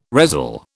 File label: "resell", British